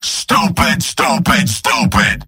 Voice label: negative vocalization, scout; tf2; garrysmod; mvm